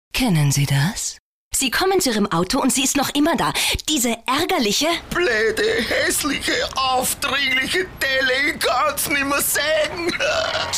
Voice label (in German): Frau OFF